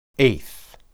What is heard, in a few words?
eth, hebrew